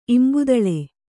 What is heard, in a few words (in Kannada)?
"ಇಂಬುದಳೆ", Kannada